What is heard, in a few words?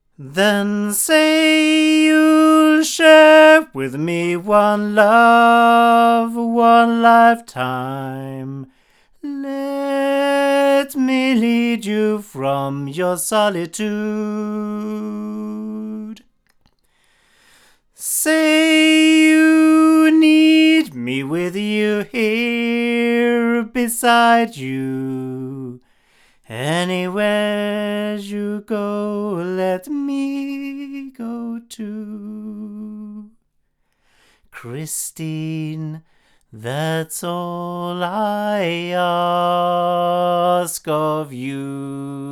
Sontronics Helios cardioid male, microphones recordings